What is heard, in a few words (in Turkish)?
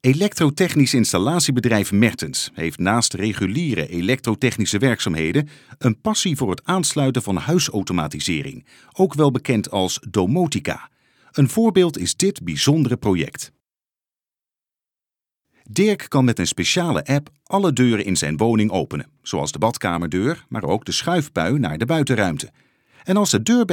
Hollanda Erkek Felemenkce